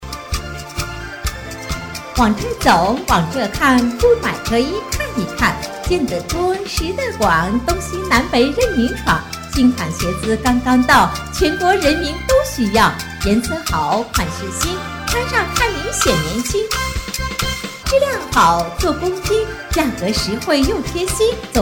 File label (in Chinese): 女 鞋子（快板）